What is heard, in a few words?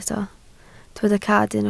"cad", Welsh